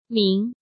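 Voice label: "Míng", Chinese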